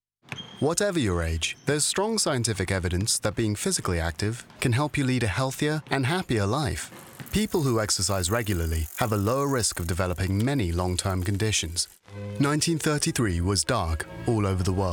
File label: Narrative; VOICEFOX